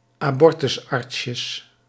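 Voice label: "abortusartsjes", Dutch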